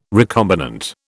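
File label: "recombinant", British English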